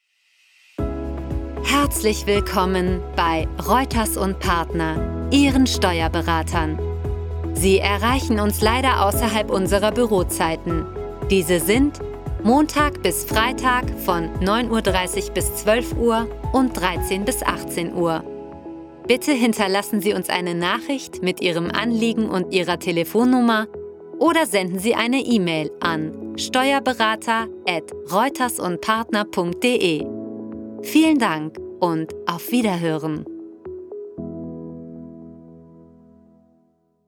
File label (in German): Telefonansage, Sprecherin